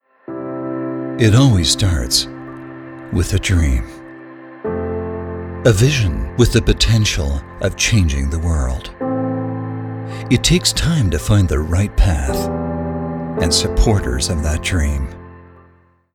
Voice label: american english male Moodfilm Blockchain